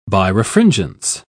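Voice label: "birefringence", British English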